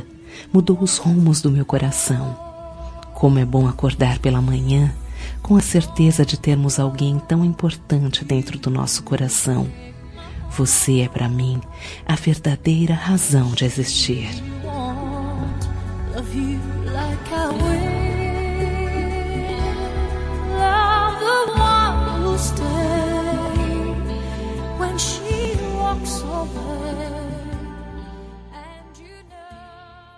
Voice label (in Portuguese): Romântica Eu Estou Apaixonada